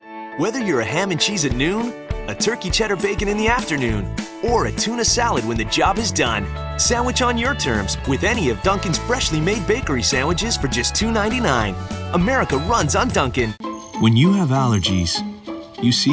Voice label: commercial